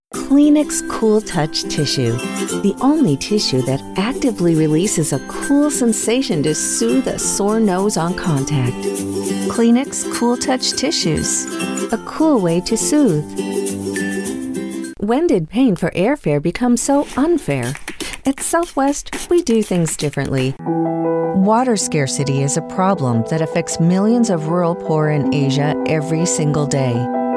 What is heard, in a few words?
Commercial